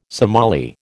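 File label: "Somali", American English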